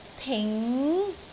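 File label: "ting2", Cantonese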